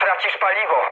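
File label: tank damaged mood med, speech Polish; War Thunder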